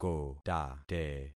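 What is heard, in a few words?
"kotate", concatenated syllables; Affixing experiment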